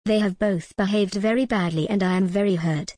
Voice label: en gb they have both behaved very badly and i am very hurt